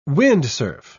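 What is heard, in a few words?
"windsurf", British English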